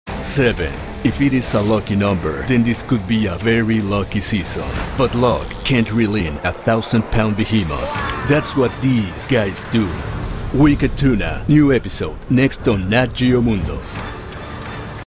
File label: Spanish English Voice